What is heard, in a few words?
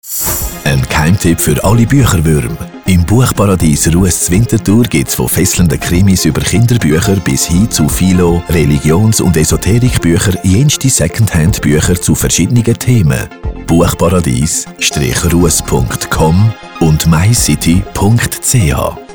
Radio Spot